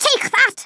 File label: dragon punch, Scouser